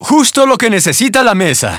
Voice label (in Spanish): Spanish - dialogue, reveal; Birthday Party Bash; Wii